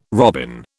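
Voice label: "robin", British English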